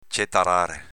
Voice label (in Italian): "cetarare", dialetto veneto; v. rubare, imbrogliare